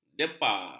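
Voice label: "depa", Malay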